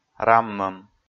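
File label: "раммӧм", Komi, male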